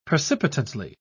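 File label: "precipitantly", British English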